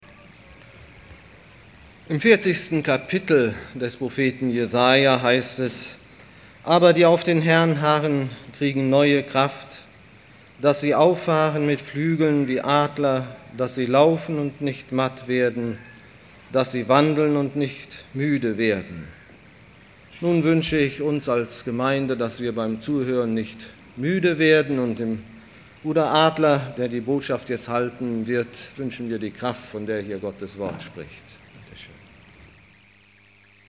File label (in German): Ansage; Gott, der an die Menschen denkt